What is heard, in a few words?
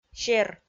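"сер", Komi, female; kpv audio dict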